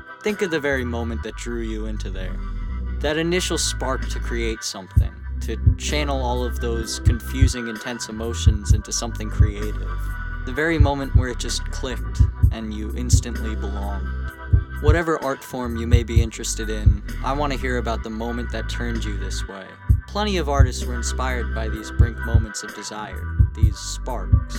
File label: podcast commercial; LIHSA Student Podcasts